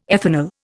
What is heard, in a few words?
"ethanol", Indian